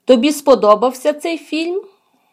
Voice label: dialogue, Ukrainian